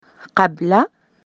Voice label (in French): avant, arabe